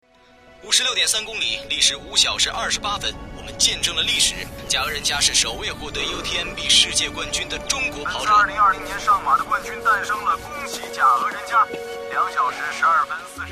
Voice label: 体育解说; 新百伦

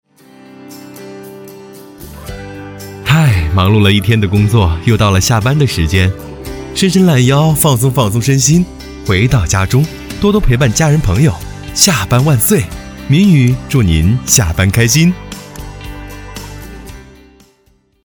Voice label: 问候 温暖时尚

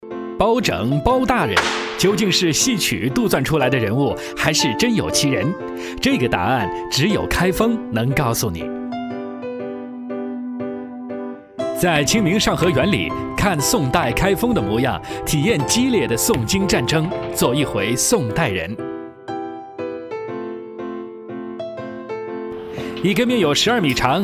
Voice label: 中文电视旁白; 戏说开封

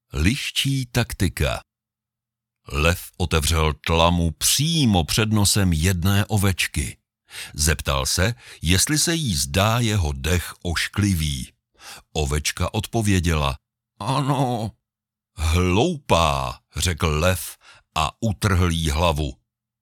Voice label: 捷克语男

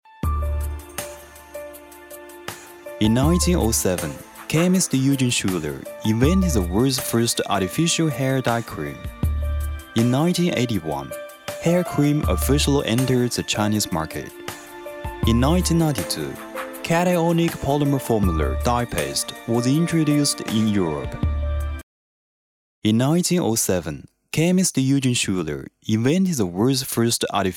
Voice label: 英文商业广告染发膏

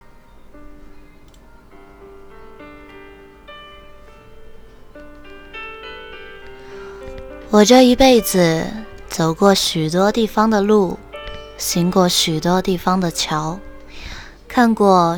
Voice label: 温柔女声情书告白

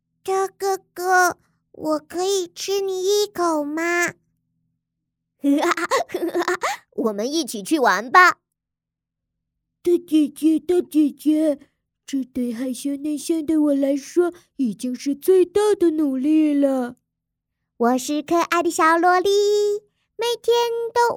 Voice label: 伪音

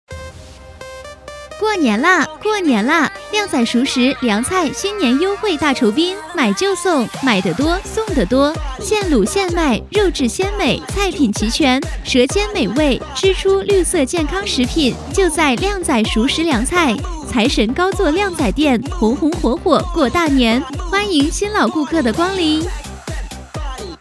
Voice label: 促销知性

